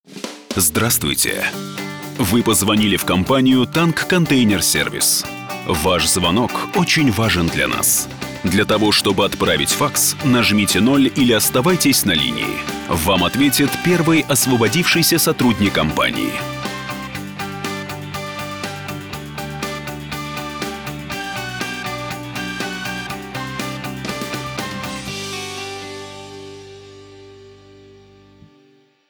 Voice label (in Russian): Голосовое приветствие IVR; АВТООТВЕТЧИКИ; Компания Танк Контейнер Сервис